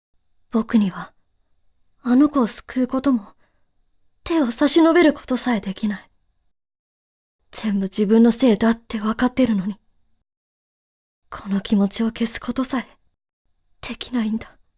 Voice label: boy